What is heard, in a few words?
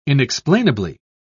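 "inexplainably", British English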